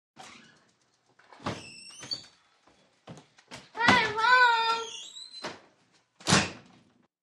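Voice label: 11 Yr Old Girl From School, kids play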